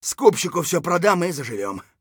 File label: scav mutter, voice; Escape from Tarkov; scav1